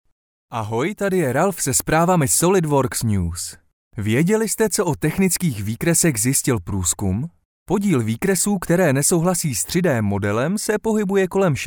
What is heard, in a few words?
Czech male; lektoring